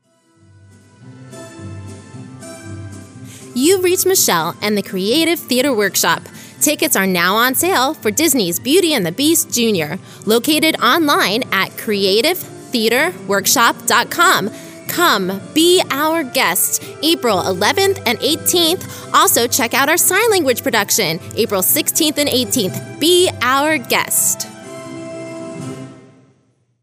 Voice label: Phone Msg; Be Our Guest